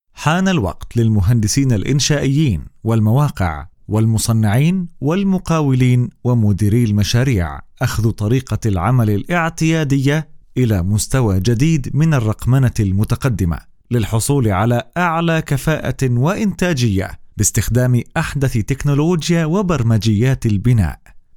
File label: Arabic Voiceover